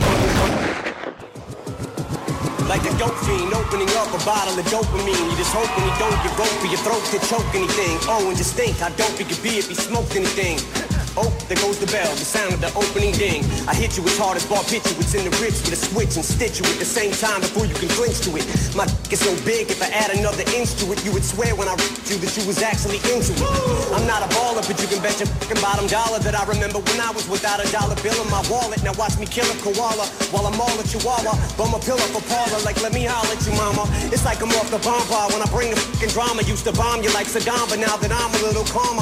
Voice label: Freestyle; T Hip Hop Awards